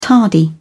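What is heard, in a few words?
"tardy", British English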